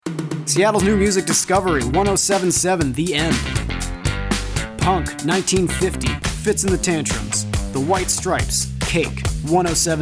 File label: Voiceover